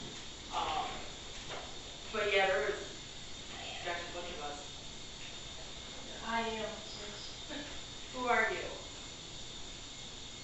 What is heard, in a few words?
public talking then unknown whisper; Gross Ile Depot Home; EVP